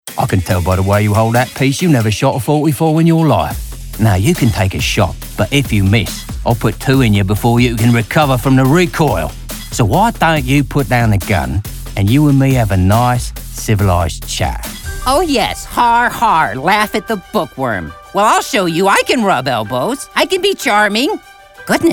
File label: character, voice acting; global voice acting academy